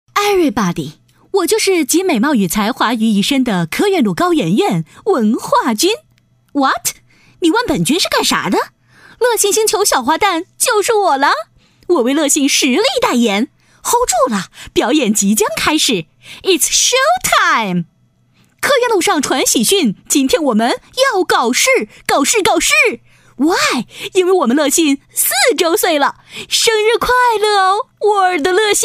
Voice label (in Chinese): 女 【搞笑夸张 女声】; 幽默搞怪; 飞碟说MG